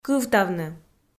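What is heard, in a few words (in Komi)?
"кывтавны", Komi, female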